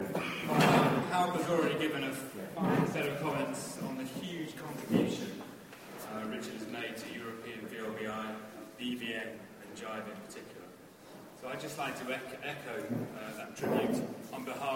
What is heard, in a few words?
Conference Dinner Speech